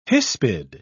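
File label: "hispid", British English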